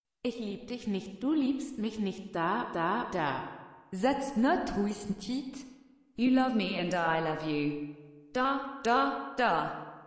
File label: Polyglot Robot